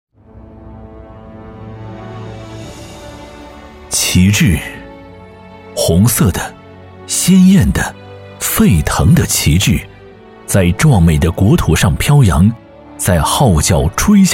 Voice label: 党建汇报片 红色旗帜

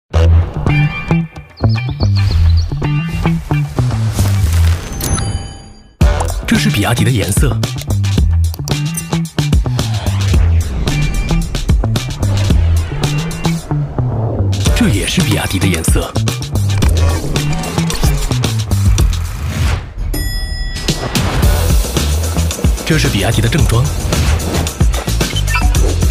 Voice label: 宣传片; 比亚迪

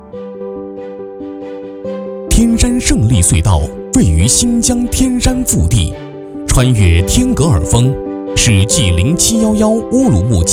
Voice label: 工程汇报大气浑厚

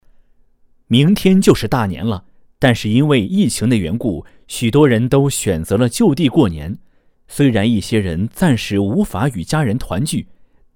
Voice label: 电视新闻广播播报试音

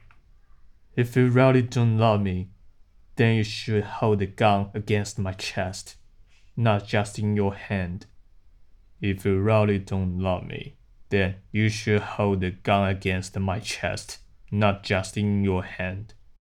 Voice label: 商业 配音 英语

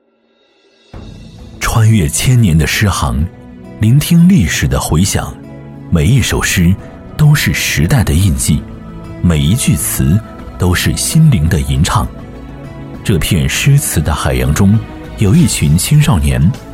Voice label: 文化推介片 青年诗词

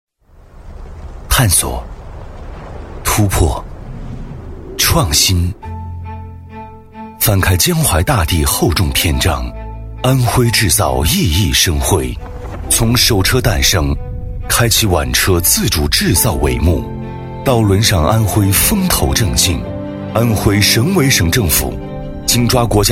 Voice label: 宣传片厚重大气企业

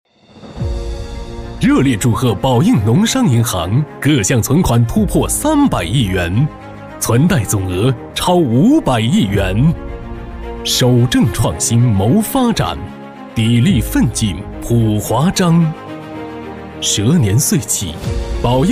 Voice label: 宣传片; 宝应农商银行

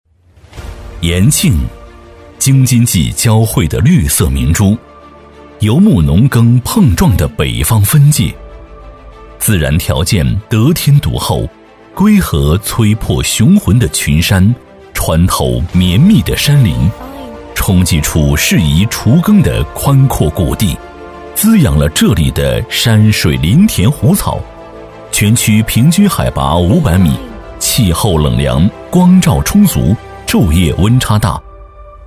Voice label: 特色宣传片 城市; 延庆

